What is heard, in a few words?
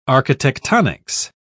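"architectonics", English, British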